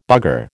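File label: "bugger", American English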